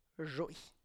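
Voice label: "zhoy", Tlacochahuaya Zapotec; upper Arm Shoulder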